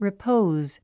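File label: "repose", English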